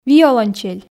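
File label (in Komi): "виолончель", Komi, female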